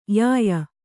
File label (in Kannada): "ಯಾಯಿ", Kannada